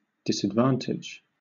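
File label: "disadvantage", British English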